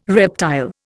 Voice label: "reptile", Indian